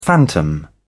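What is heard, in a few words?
"phantom", English, British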